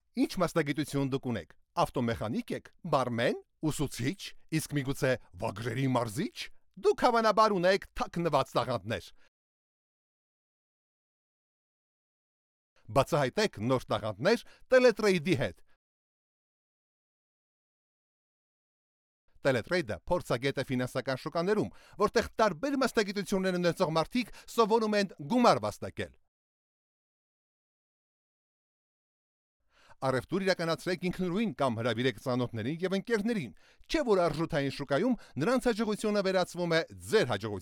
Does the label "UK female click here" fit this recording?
No